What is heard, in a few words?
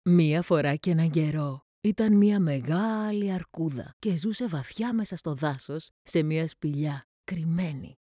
greek narration